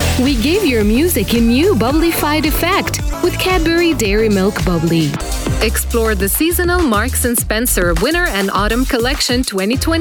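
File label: Arabic, female, English commercial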